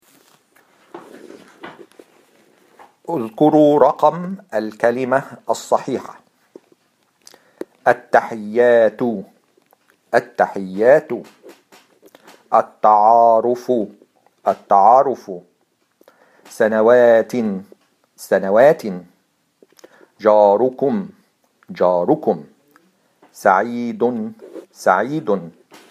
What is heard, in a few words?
Pronounce, Arabic